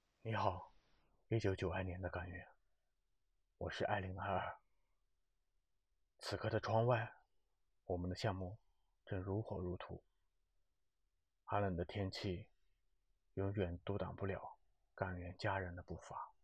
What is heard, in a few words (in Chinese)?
配音稿, 治愈、磁性、男声旁白; 雨中有微风